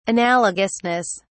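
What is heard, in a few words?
"analogousness", British English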